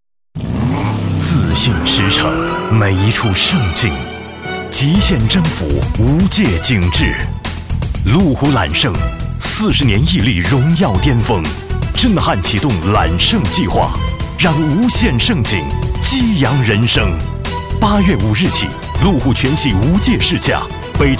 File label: MANDARIN Male Adult; Ker Sound Studio Shanghai China